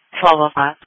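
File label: Police Patrol (Dispatch)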